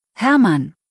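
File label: "Hermann", German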